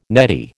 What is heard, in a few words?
"neddy", American